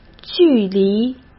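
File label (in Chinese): "距离", Mandarin Chinese; chinese flashcards; hsk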